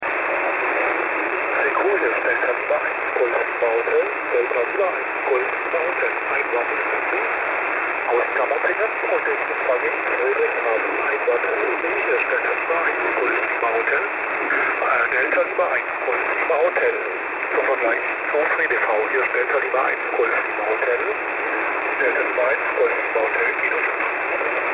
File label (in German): FreeDV SSB Vergleich; hamradio